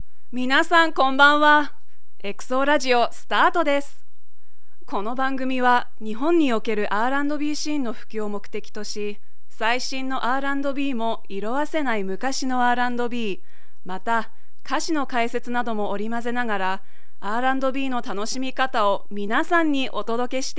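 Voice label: Narration, Japanese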